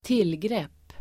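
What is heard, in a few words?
"tillgrepp", Swedish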